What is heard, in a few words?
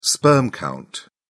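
"sperm count", English, British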